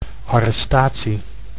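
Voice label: "arrestatie", Dutch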